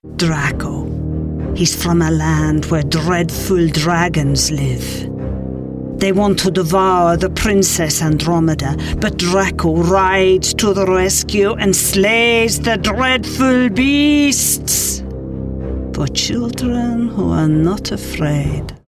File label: computer games, female